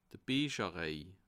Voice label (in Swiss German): "biecherreih", alsace